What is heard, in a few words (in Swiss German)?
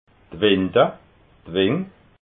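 "winda", Alsatian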